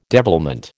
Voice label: "devilment", American